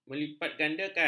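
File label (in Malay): "melipatgandakan", Malay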